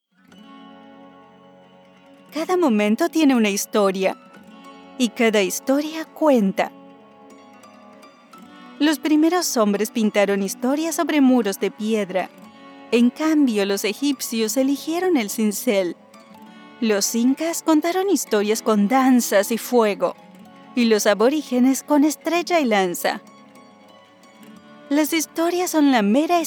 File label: spanish latin american narration